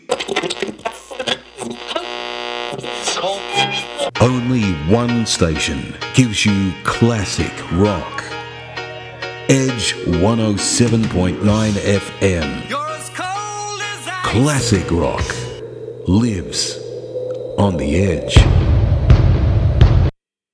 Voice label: Radio TV tags English Australian Male Middle